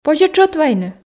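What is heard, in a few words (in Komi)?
позьӧ тшӧт вайны, Komi, female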